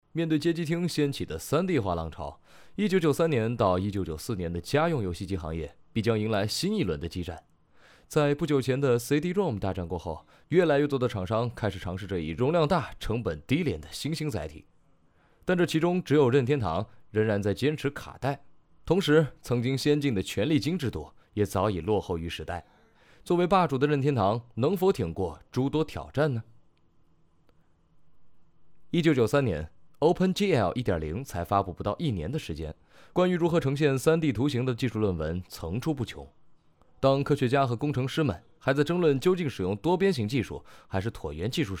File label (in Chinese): 视频解说配音